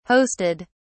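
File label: "hosted", British English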